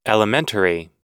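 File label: "elementary", English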